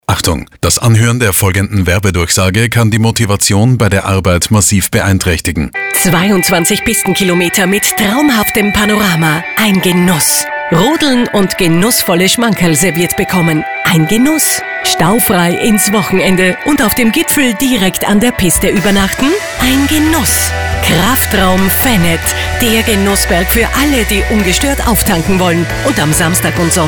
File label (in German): Radiospot; Venet; Der Genußberg